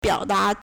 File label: "biao3da2", Chinese